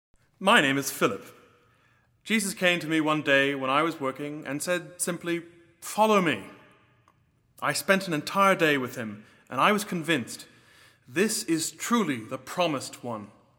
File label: Monologue; Philip; living last supper